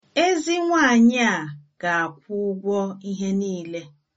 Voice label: pay, igbo